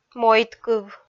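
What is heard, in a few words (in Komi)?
"мойдкыв", Komi, female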